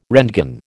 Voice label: "roentgen", American

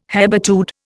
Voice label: "hebetude", Indian

